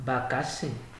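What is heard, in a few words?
"bakassi" Nigerian Pidgin, male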